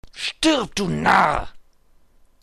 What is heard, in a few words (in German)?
Narr